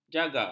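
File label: "jaga", Malay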